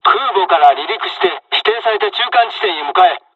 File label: dialogs japanese; War Thunder